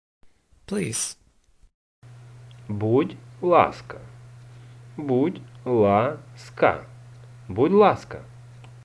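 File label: Ukrainian Please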